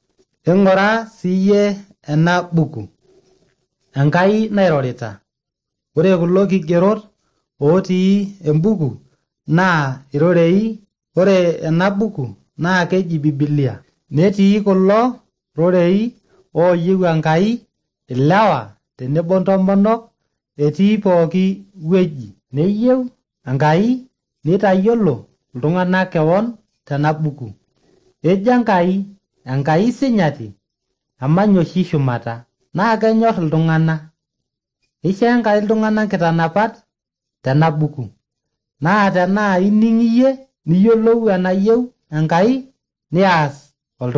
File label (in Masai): maasai bsv nt drama; good news; bible